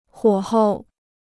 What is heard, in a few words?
"火候", Chinese